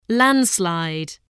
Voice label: "landslide", English

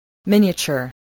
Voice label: "miniature", voice, spelling

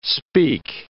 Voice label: "speak", English

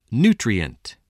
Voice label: "nutrient", voice, spelling

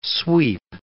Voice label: "sweep", English

